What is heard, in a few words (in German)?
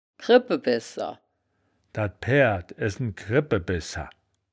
"kreppe bessa", wittlicher; das wittlicher woerterbuch; woerterbuch vertonung